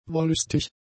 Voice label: "wollüstig", German; adjectives

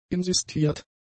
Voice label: "insistiert", German; adjectives

